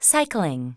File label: english race cycle; samsung YP-U5; Audio User Interface